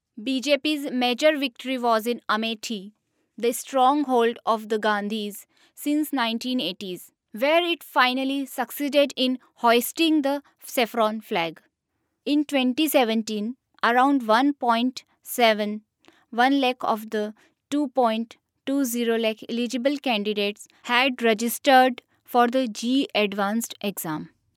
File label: female indian voice over narrative